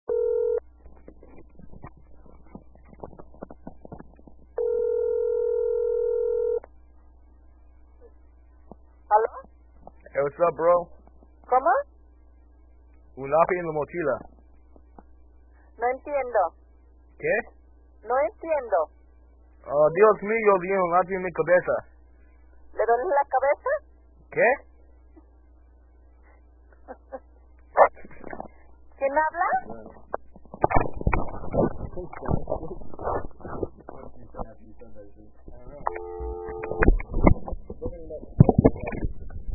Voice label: spanish; message